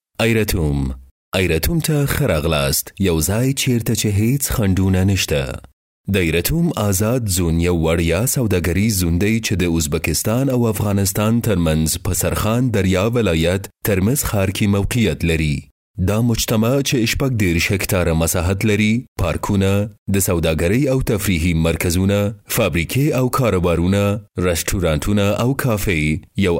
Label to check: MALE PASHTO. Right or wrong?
Right